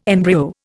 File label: "embryo", Indian